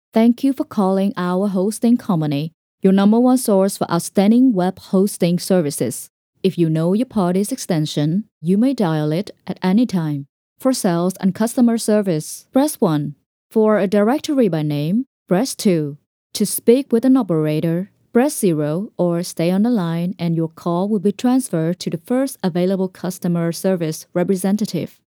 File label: Corporate IVR